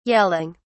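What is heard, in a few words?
"yelling", British English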